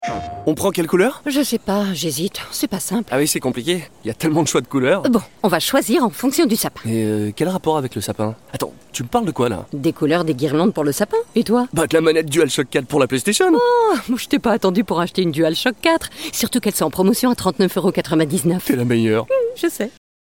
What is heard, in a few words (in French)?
pub comedie; playstation